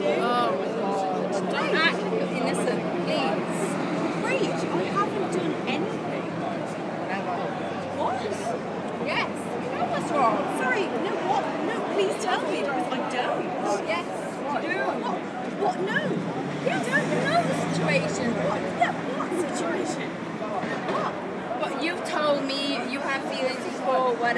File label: two drunk girls outside a pub arguing over flirting with a bloke